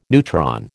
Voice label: "neutron", American